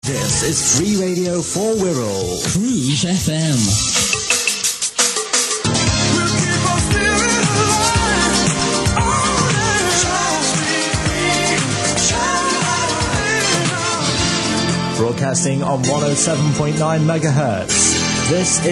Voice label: Jingle & Advert; Cruise FM 107.9; Merseyside